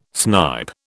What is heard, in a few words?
"snipe", British